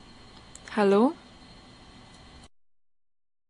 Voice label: Phone hello